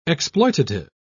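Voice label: "exploitative", English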